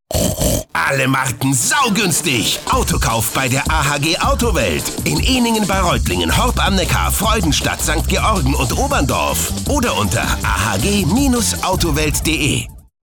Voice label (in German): Dynamisch